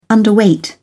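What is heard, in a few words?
"underweight", British English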